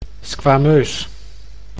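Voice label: "squameus", Dutch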